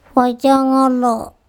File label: "pHocongOlo", Andamanese; very intense sunshine